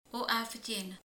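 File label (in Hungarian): "méz", Hungarian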